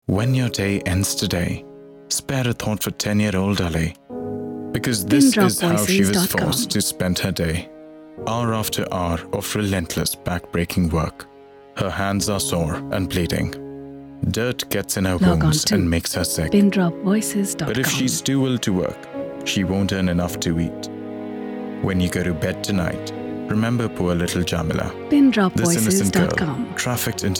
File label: English